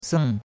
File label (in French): "seng4", chinois; Cours-de-Chinois